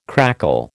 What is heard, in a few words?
"crackle", American